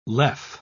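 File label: "lev", British English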